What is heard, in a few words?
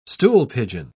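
"stoolpigeon", English, British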